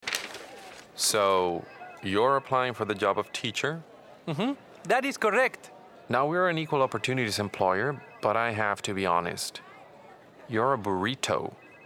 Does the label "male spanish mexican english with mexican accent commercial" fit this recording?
Yes